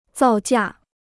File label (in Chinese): "造价", Chinese